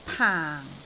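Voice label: "paang4", Cantonese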